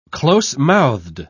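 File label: "closemouthed", British English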